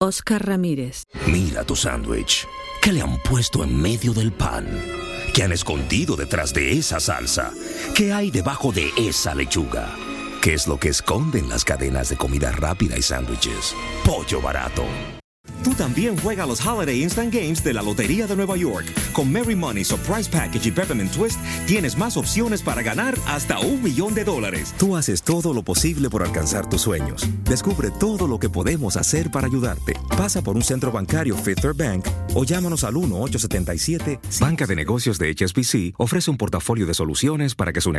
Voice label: languages men spanish